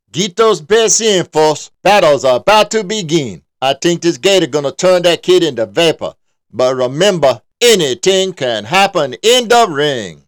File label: Ring Announcer dialect; Animated Short (30 Seconds); Fight